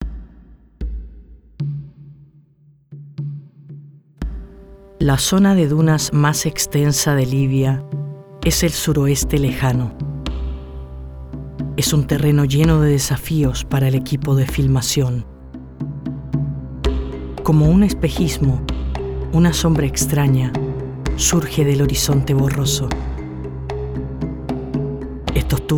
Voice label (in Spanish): documental